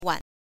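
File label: "wan", Mandarin Chinese, neutral tone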